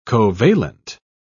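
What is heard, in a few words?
"covalent", British English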